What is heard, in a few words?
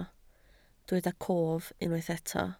"cof", Welsh